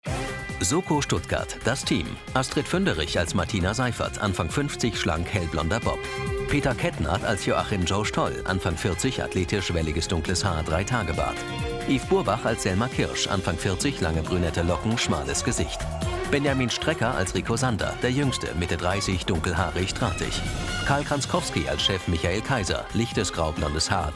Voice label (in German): sprecher audiodeskription; soko vorspann